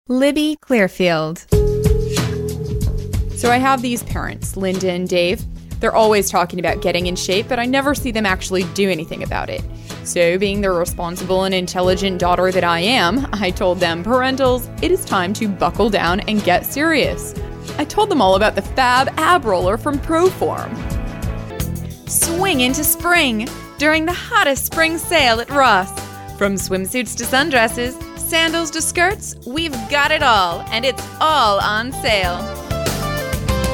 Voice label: Commercial